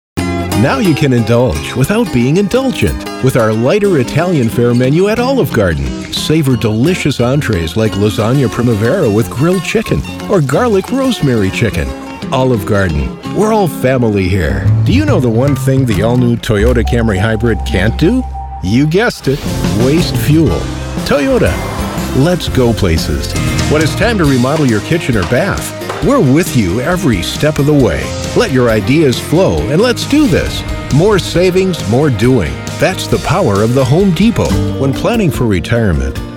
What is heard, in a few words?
COMMERCIAL